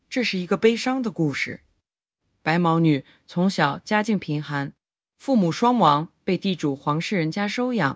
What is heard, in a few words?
eng